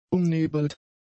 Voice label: "umnebelt", German; adjectives